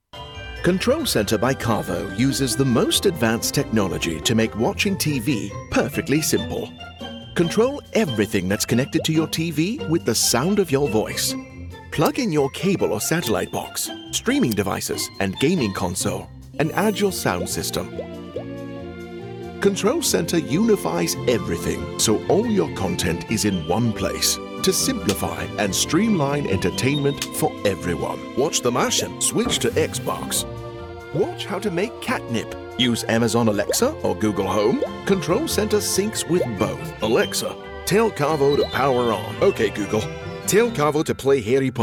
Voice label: Explainer, explainer voiceovers; Caavo